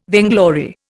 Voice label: "vainglory", Indian

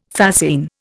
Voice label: "fascine", Indian

